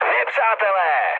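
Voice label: enemy detected, Czech, mood med; War Thunder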